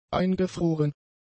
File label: "eingefroren", German; adjectives